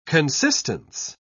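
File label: "consistence", English, British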